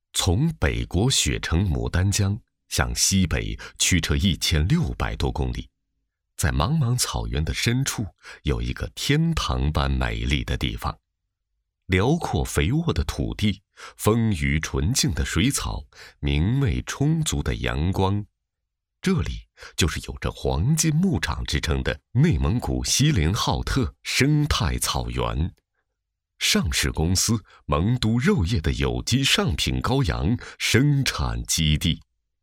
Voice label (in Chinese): 男 纪录片; 缘中圆